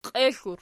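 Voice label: "qiexl" plural, Archi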